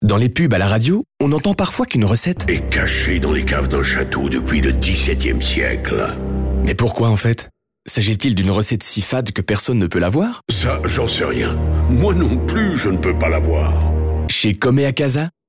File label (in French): Voix M